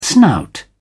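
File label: "snout", British English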